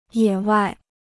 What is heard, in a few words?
"野外", Chinese